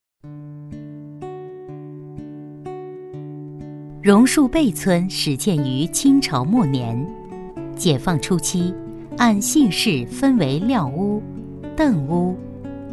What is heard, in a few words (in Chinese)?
女 讲述; 榕树背村